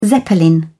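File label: "zeppelin", British English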